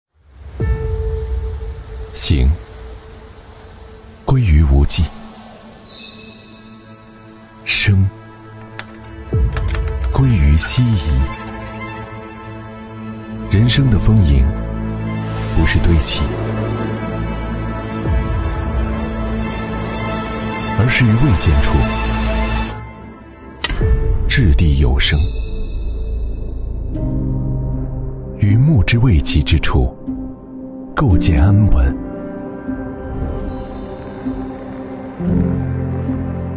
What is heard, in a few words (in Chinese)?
男 广告优雅; 莫干山板材